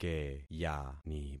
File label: "keyani", concat syllables; Affixing experiment